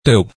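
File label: "téu", Hakka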